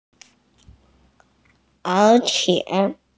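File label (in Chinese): "er3qie3", Mandarin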